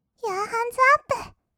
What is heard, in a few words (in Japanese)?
"ユア ハンズ アップ", kawaii voice; ASMR; 1200 kawaii voice!!; 音ゲー